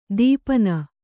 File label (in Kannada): "ದೀಪನ", Kannada